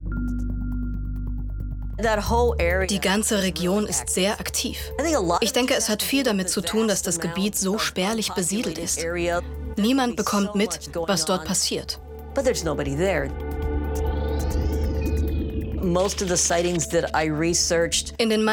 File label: Voice Over